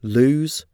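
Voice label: "lose", English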